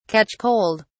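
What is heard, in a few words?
"catch cold", British English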